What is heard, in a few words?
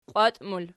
"qiwat", Archi